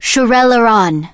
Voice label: Blood Elf Female Standard Farewell

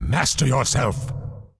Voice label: Undead Male Warrior Pissed; NPC

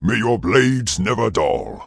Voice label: Orc Male Standard NPC Farewell

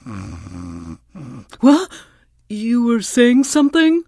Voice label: Tuskarr Female Pissed; Warrior

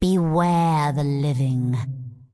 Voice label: Undead Female Magic Farewell; NPC

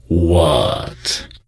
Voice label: NPC Death Knight Troll Male Greeting

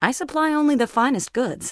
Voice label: Human Female Warrior NPC Vendor

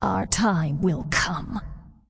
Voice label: Undead Female Warrior NPC Farewell

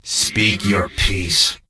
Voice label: Death Knight Male Low Greeting

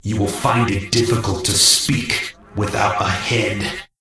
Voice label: Death Knight Male Low Pissed

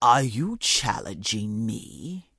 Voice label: Orc Female Standard Pissed

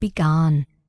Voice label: Night Elf Female Standard Pissed

